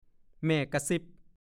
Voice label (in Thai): "เมื่อหว่าง", ภาษาโคราช